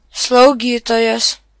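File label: nurse male, Lithuanian; gcompris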